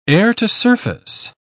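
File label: "air-to-surface", British English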